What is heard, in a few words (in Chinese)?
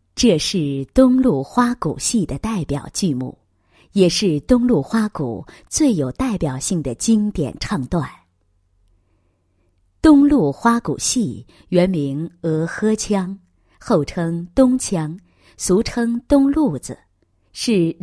女 申报; 东路花鼓申报片